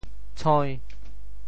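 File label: "tshoi~5", Teochew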